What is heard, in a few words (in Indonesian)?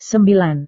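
"sembilan", Indonesian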